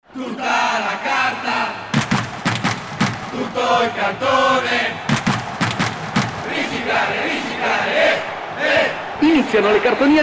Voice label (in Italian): spot radio; Marche